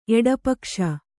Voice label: "ಎಡಪಕ್ಷ", Kannada